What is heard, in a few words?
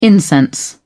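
"incense", British English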